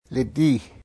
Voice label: "lìdii" Gwich’in; thee-in-andere-talen

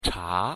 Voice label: "茶", Chinees; thee-in-andere-talen

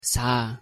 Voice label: "caz", Zhuang; thee-in-andere-talen

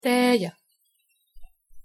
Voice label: "tēja", Lets; thee-in-andere-talen

